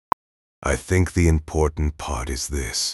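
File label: speaker male slow low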